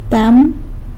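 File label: "owl", Zapotec; dam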